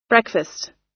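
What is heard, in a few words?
"breakfast", US English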